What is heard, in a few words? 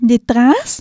disgust